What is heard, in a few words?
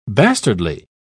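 "bastardly", British English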